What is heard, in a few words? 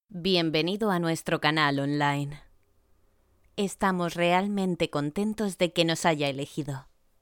corporate